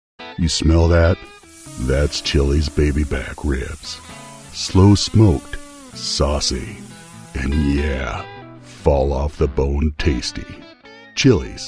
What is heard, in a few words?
commercial